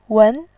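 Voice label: "wen", Mandarin Chinese, tone 2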